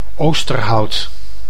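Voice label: "Oosterhout", Dutch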